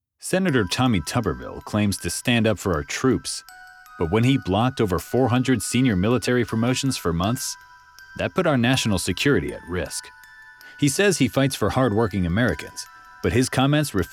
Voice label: Left Candidate Negative, political

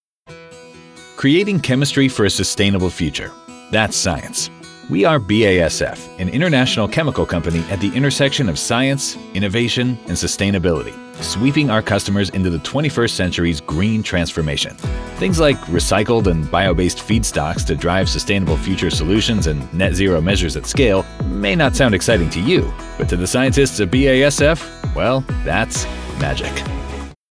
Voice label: Corporate Edgy Fun; BASF

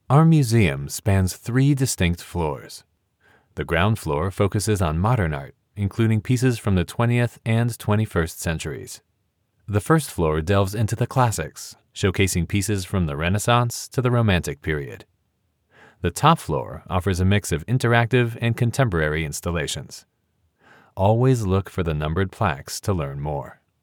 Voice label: Museum Audio Guide Orientation

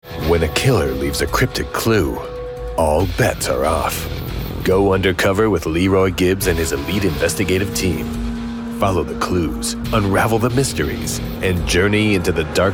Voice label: Promo Drama

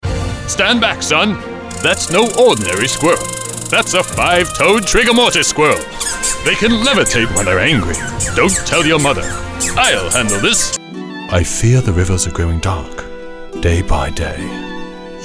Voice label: Animation, Voice Over

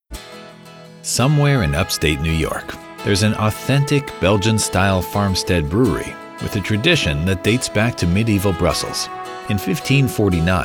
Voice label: Corporate Storytelling; Ommegang

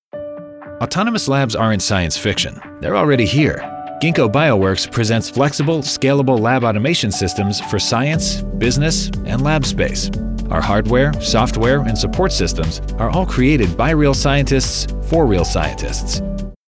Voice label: Corporate Formal Informational; Gingko

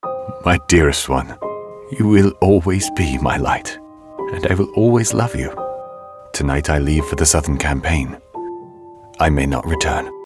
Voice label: Video Game Knight Husband

